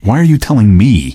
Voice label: answer, male; Garry's Mod; hl2

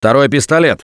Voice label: Take Pistol, russian; Gambler

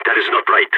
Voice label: disagree, vo balkan; Counter-Strike Global Offensive

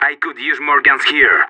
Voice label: radio need backup, separatist; player vo

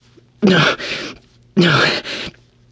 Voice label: radio combat color; Left 4 Dead 2; churchguy

